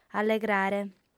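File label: "allegrare", lingua sarda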